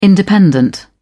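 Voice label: "independent", British English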